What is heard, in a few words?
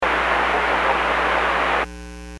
Aviation 122.7; KSTE